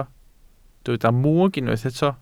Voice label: "mwg", Welsh

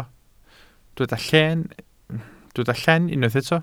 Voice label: "llen", Welsh

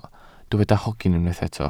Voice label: "hogyn" Welsh

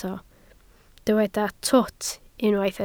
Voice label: "twt", Welsh

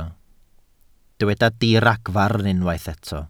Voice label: "diragfarn" Welsh

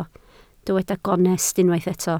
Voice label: "gonest" Welsh

